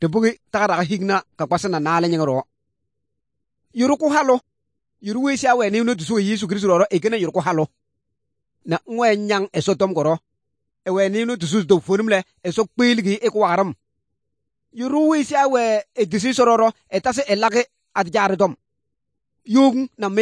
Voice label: good news, kabiye bible

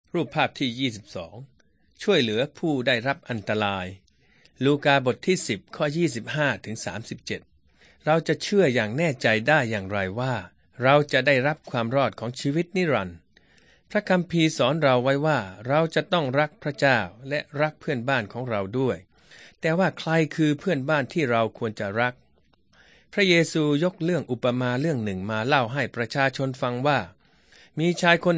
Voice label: thai central

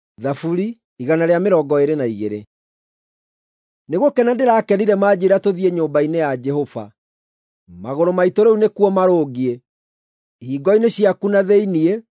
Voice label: psalms, gikuyu drama

